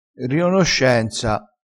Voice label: "rionoscenza", crespinese

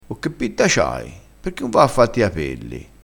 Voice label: "pitta", crespinese

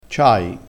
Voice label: "ciai", crespinese

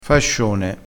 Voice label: "fascione", crespinese

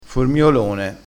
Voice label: "formiolone", crespinese

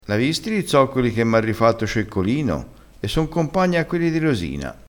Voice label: "compagno", crespinese

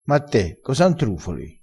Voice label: "intrufola", crespinese

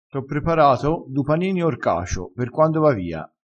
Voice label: "cacio", crespinese

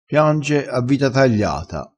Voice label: "piange a vita", crespinese

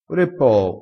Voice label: "ora e poo", crespinese

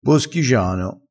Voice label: "boschigiano", dialettale

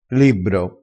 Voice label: "libbro", crespinese

